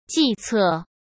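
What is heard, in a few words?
"计策", Mandarin Chinese; hsk; chinese-flashcards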